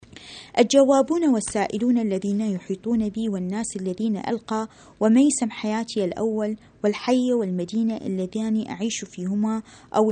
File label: arabic